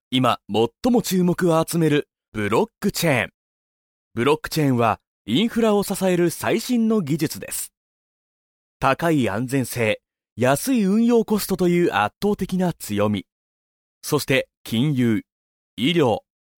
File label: japanese e-learning